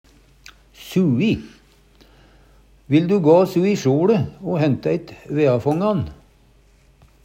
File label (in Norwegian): su'i, Numedalsmål